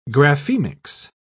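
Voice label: "graphemics", British English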